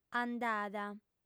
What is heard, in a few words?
"andada", audiofem